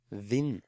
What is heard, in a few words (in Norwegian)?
"Vind", Norwegian